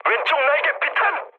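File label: Korean left wing mood med, speech; War Thunder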